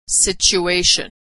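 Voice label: "situation", American English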